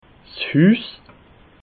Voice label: "huss", alsace

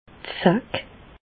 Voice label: "zack", Bas Rhin; medecine; tique

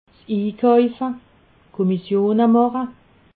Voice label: "ikaufe", alsace

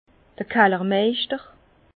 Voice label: "kallermeischter", Bas Rhin; vin; caviste

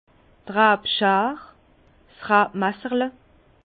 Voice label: "rabschar", Bas Rhin; vin; serpette

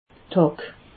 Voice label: "hack", alsace

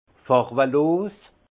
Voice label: "farwelos", alsace; incolore; vin

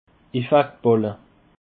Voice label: "effaktballe", alsace

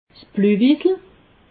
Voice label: "pluvisle", alsace

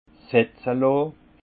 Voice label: "setzelon", alsace; vin

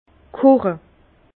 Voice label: "koche", Bas Rhin; vin; bouillir fermentation tumultueuse

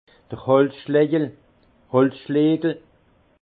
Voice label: "holzschlagel", alsace; vin; mailloche maillet

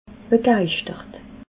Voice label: "begeischtert", alsace

